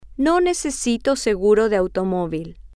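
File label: I do not need auto insurance, spanish, female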